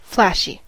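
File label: "flashy", English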